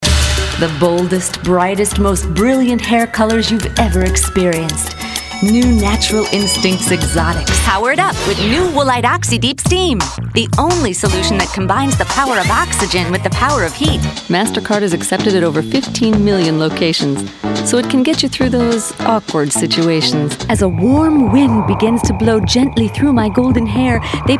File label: commercial